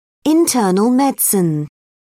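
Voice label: "internal medicine", British English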